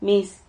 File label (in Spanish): "miz", Silabas